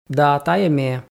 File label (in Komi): да тайӧ ме, f